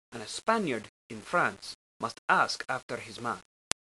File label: "man", spanish